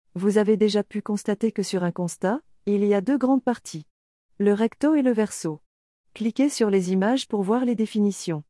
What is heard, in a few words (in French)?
VOIX OFF